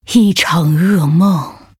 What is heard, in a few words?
Female Night Elf Oops; zh